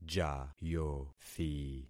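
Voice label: "jayothi", concatenated syllables; Affixing experiment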